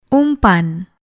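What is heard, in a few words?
"umpan", Malay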